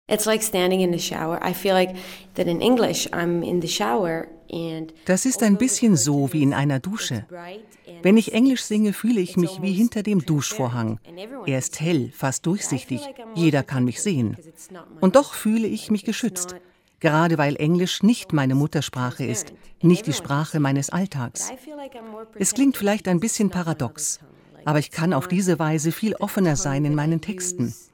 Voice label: voice over